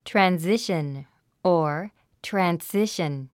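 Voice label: "transition", English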